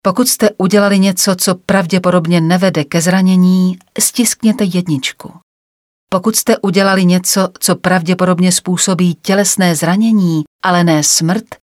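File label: Female Czech e-learning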